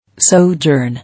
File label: "Sojourn", English